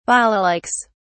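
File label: "balalaikas", British English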